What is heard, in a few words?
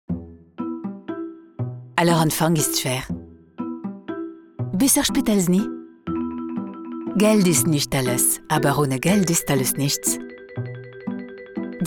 female French German with French accent